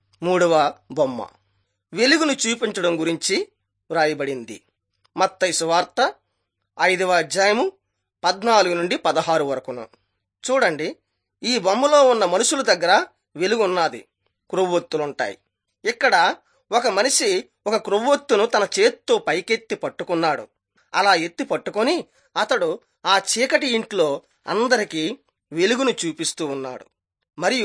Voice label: telugu bangladesh; jesus teacher and healer

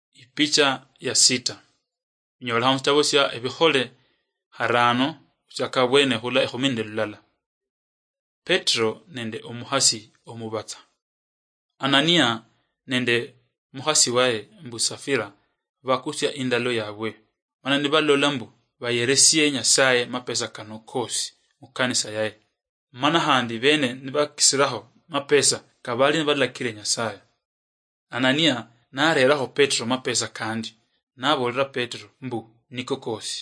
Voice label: acts of the holy spirit luyia marachi, drama

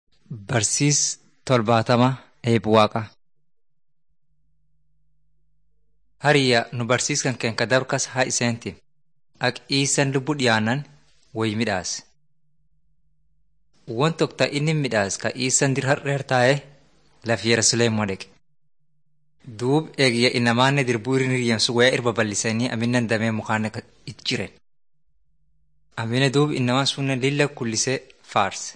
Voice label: teaching tape orma; bible lessons 69 72

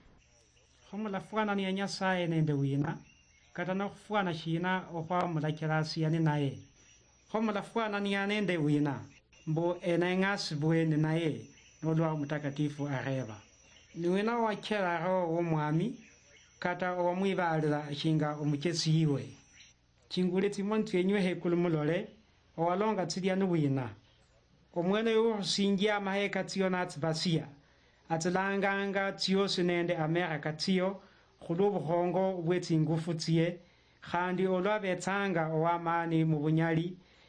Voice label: words of life luhya lunyore, drama; luo bsk nt drama

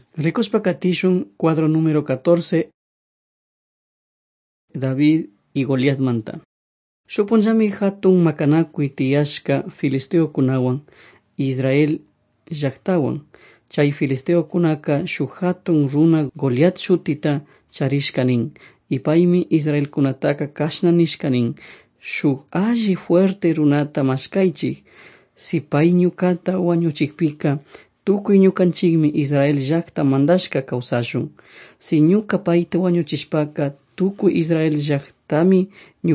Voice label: servants of god quichua chimborazo

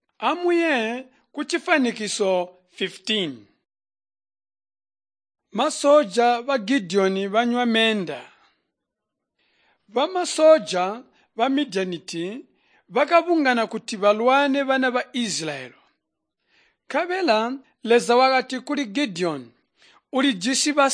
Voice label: tonga plateau, drama; chitonga bsz nt drama

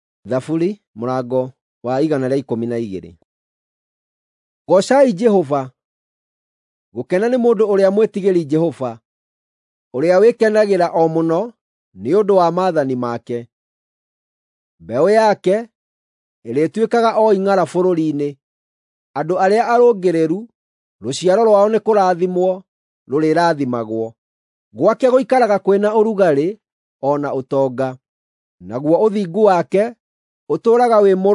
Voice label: psalms, gikuyu drama

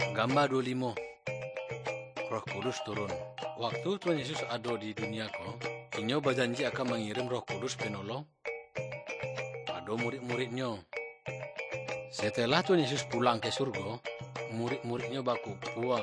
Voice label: good news minangkabau muko muko; malay; kaba ilo

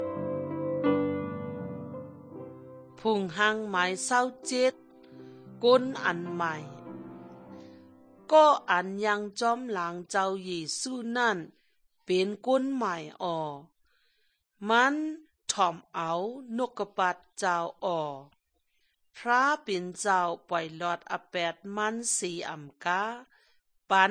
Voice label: good news shan eastern, drama

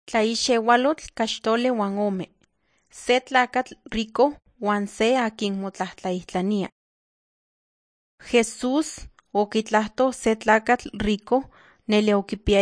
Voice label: nahuatl guerrero tlapa; the bible league nt drama

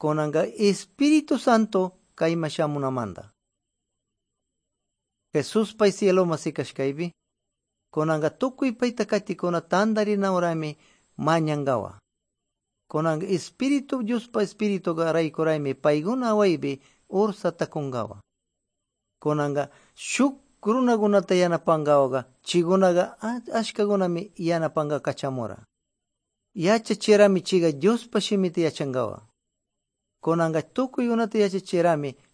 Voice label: good news quichua de pastaza

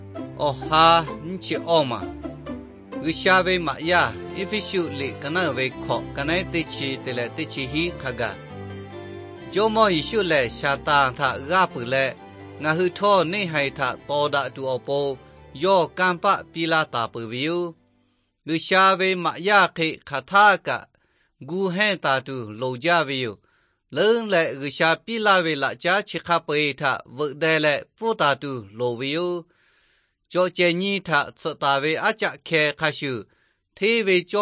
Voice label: victory through god lahu na, nt drama; lahu thailand bible society

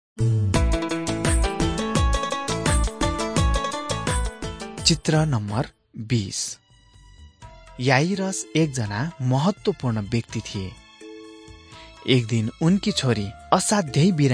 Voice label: jesus teacher and healer nepali

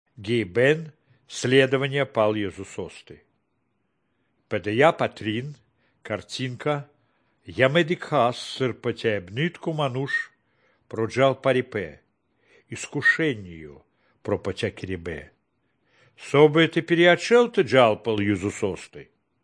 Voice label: good news baltic romani

